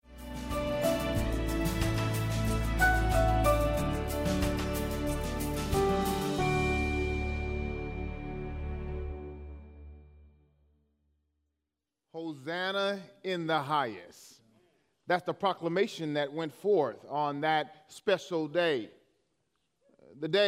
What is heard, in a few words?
English Sermon